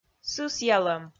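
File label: "сысъялӧм", Komi, female; audio dict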